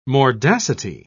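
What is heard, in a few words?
"mordacity", British English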